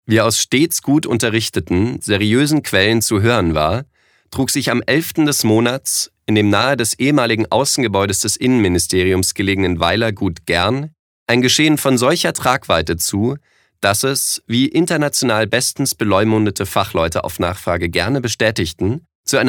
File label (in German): Trocken Neutral